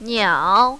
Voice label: "niao3", mandarin, female